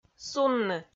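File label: "сунны", Komi, female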